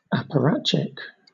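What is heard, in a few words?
"apparatchik", British English; pronunciations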